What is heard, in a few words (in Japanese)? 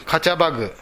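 "kachabagu", 南山形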